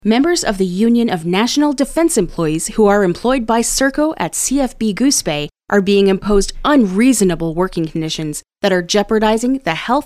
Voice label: radio ad; serco; support serco workers cfb goose bay